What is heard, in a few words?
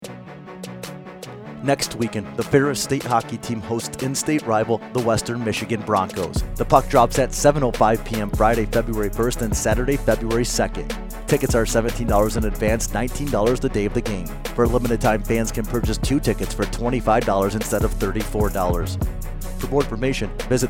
Radio Commercial; WMU